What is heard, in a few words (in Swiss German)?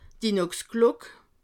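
"inox", Alsatian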